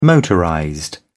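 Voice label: "motorized", British English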